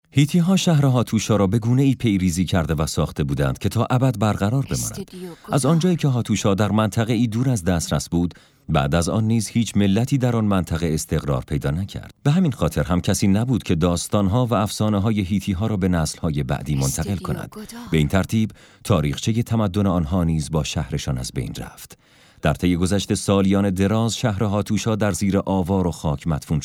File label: documentary